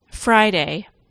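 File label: "Friday", English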